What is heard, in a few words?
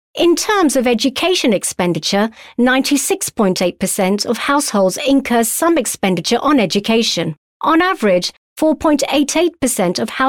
sample eng, female voice